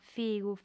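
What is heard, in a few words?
"figu", Sardinian, female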